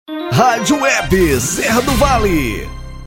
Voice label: locutor gravacoes

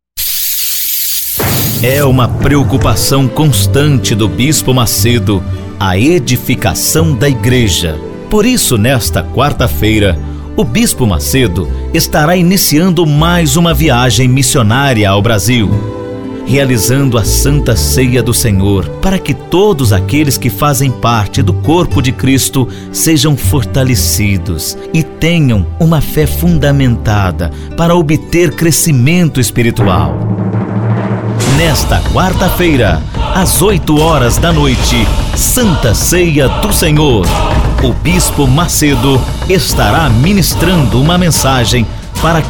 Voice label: Locutor spot; iurd santa ceia

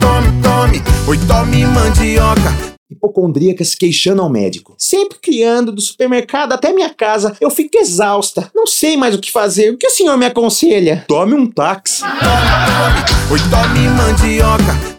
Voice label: spot piada; rei da mandioca

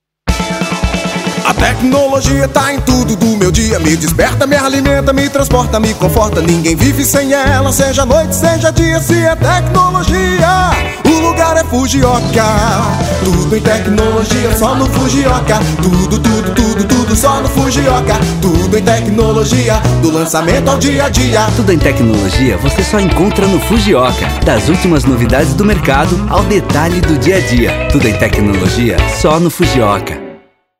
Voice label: institucional

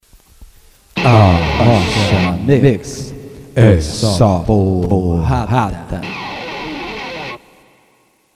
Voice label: efeito de voz arrocha mix é só porrada; mprasil; terra da moqueca

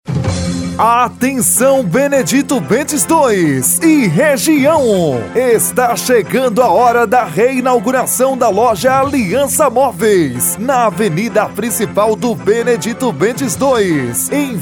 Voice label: locutor; alianca moveis reinauguracao

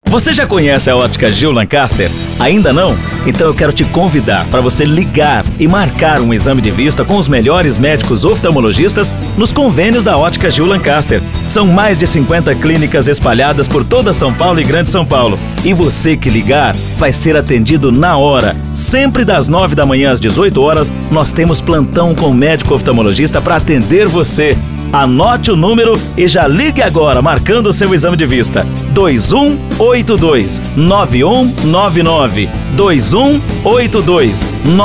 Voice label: locutor spot otica

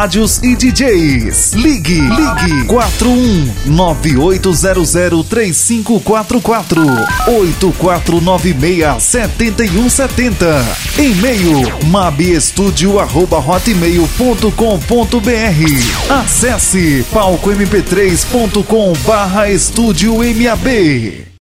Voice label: spot; mab estadio

